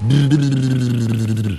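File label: bbbbbbbbbbbb, gibberish; Voice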